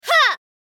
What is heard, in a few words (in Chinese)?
"哈！"-女